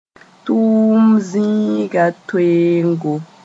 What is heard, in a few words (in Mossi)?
"tʋʋm zĩiga toeoongo", moore; allocation